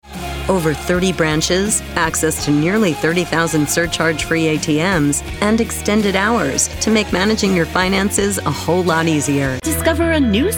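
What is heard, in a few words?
American English, Commercial; GoLocalise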